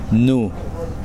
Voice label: "green coconut", Kosraean